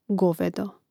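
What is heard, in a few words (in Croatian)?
"govedo", hrvatski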